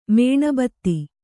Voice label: "ಮೇಣಬತ್ತಿ", Kannada